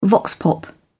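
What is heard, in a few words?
"vox pop", British English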